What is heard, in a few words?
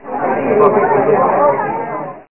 Babble